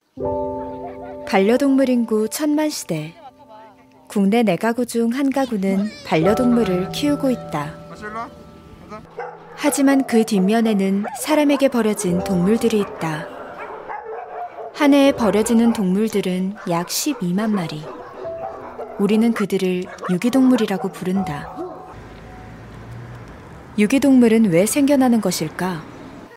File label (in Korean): 내레이션 샘플 편안한